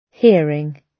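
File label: "hearing", British English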